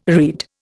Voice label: "read", Indian

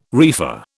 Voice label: "reefer", British

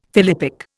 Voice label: "philippic", Indian; Dictionary

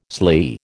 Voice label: "sley", American

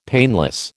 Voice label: "painless", American

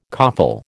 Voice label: "coffle", American

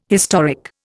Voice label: "historic", Indian

